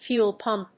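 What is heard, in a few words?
"Fuel pump", English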